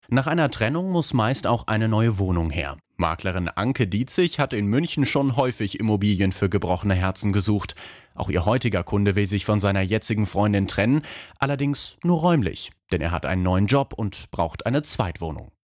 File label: Voice Over